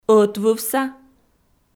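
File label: "ӧтвывса" Komi, female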